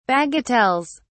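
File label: "bagatelles", British English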